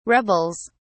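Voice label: "rebels", British English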